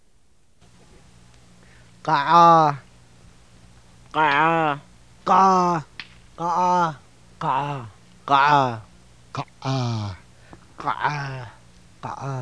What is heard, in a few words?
xoo pharyngealized